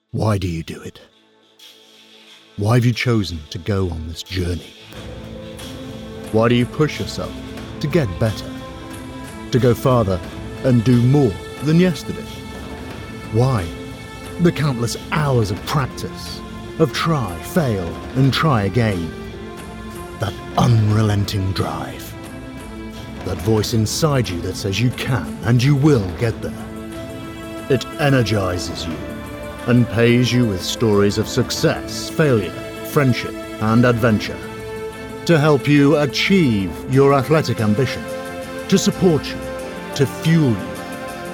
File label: voiceover corporate sports nutrition promo; commercial